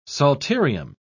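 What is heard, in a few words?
"psalterium", British English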